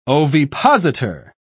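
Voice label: "ovipositor", English, British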